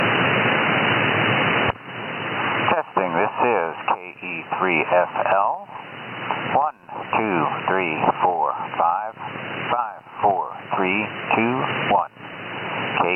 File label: Testing Mic In Case; uBITX